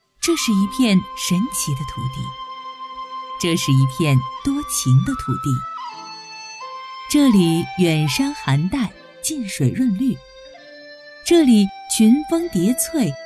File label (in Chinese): 宣传片香甜的红薯